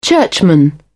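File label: "churchman", British English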